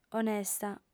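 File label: "onesta", female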